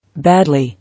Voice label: "Badly", English